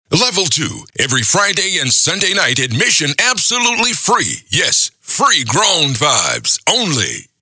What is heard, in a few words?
DRY DROP